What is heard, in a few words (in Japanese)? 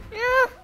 ダメージボイス; ドンキーコング６４